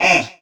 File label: VOICE, VOICE-FX